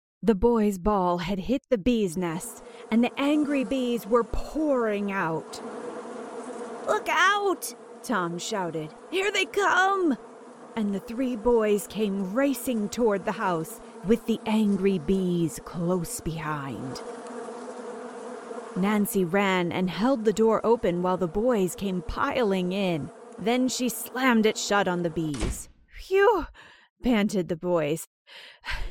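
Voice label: American English, Audiobook; voice demos; GoLocalise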